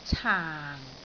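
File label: "caang4", Cantonese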